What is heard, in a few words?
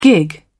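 "gig", British English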